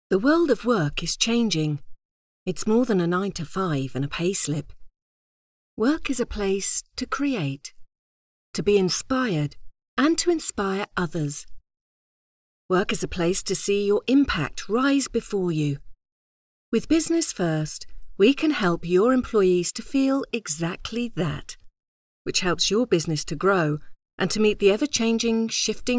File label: Studio Sound